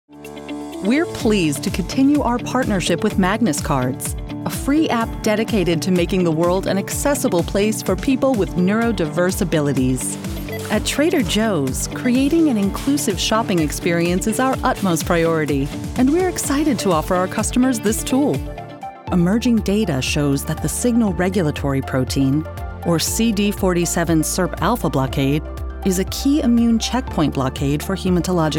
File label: Corporate Narration